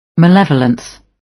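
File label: "malevolence", British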